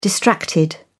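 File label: "distracted", British English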